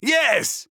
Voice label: Emote Yes; Engineer; VGS; paladins